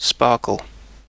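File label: "sparkle", British English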